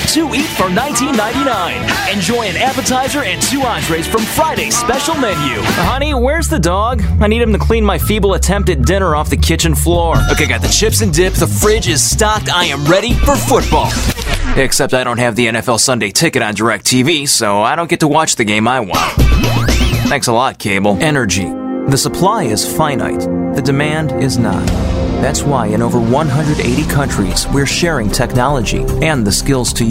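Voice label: Commercial, real people male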